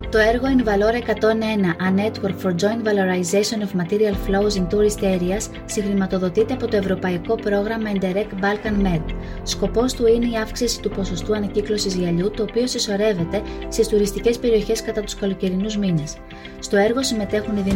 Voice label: radio spot; invalor